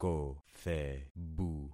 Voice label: "kothebu", concat syllables; Affixing experiment